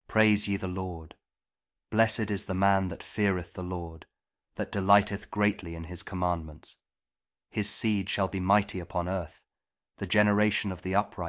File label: psalm kjv king james audio bible